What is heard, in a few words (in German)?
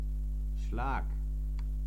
"schlag", german